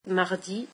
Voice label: french Tuesday

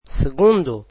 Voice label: portuguese second

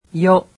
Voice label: japanese alphabet "yo"

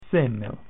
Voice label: "once", Latin

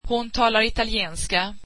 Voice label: swedish she speaks Italian

